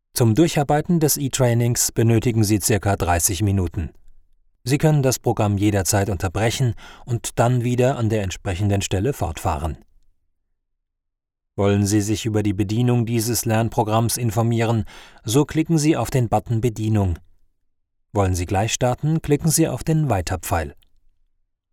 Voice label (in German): E Learning